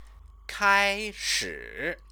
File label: "kaishi", Chinese; Chinese lessons